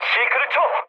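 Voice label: Korean bailout mood low, speech; War Thunder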